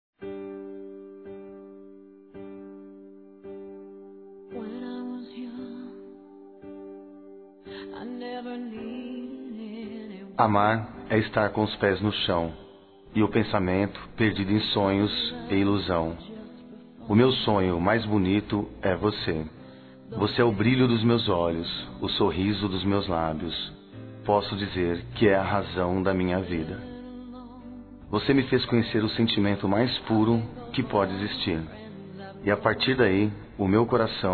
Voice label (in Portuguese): Masculino, Telemensagens Dia Dos namorados; Amar é; Telemensagens 2017